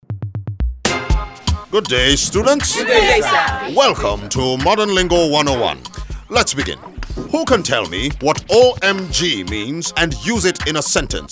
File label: Pulse Classroom Radio; MTN